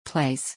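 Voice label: "place", English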